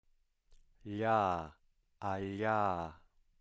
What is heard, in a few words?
voiced palatal lateral